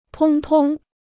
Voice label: "通通", Chinese

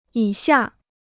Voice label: "以下", Chinese

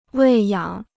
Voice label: "喂养", Chinese

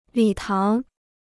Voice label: "礼堂", Chinese

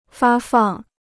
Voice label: "发放", Chinese

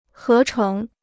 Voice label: "合成", Chinese

